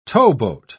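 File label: "towboat", British English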